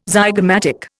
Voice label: "zygomatic", Indian

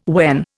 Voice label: "when", Indian

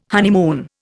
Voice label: "honeymoon", Indian

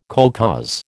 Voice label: "kolkhoz", American

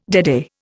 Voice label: "deadeye", Indian

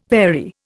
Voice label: "vary", Indian

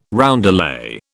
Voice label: "roundelay", British

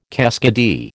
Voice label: "keskidee", American

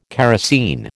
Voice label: "kerosine", American

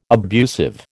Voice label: "abusive", American

